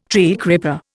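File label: "treecreeper", Indian English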